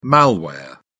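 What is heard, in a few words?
"malware", English, uk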